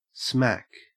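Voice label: "smack", Australian English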